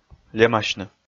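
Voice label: "лемасьны", Komi, male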